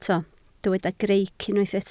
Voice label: "greic", Welsh